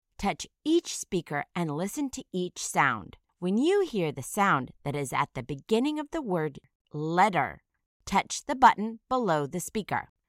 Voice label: "letter", initial phoneme isolation